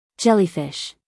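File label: "jellyfish", American English, female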